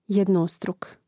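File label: "jednostruk", Croatian; mreznik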